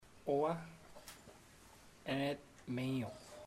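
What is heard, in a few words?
you come with me, chamacoco; Puerto Diana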